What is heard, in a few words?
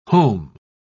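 "heaume", British English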